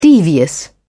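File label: "devious", British English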